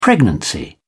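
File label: "pregnancy", English, British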